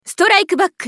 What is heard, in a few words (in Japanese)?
ウィザード女; ストライクバック